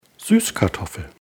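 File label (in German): "Süßkartoffel", German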